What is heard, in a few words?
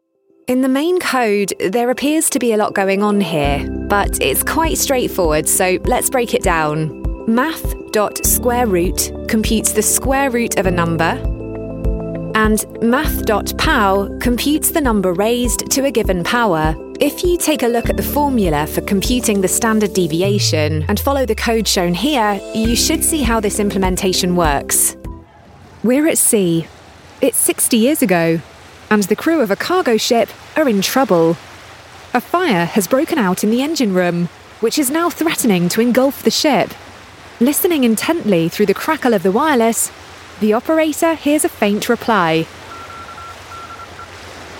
e-learning